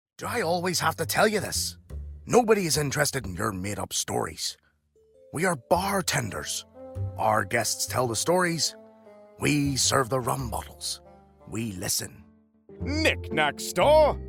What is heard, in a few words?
Character